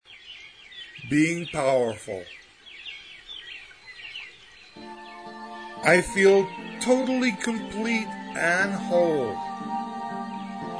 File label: Being Powerful, affirmations; Spiritual Growth